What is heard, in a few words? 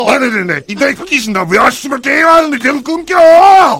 heavy negative vocalization